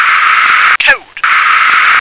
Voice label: "code", English